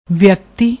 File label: "veakti", Punjabi; ਵਿਅਕਤੀ